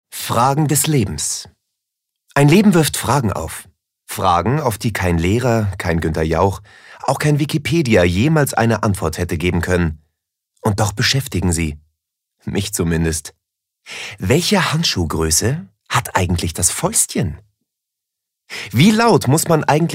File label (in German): Schauspiel Dynamisch